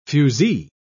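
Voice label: "fuzee", British English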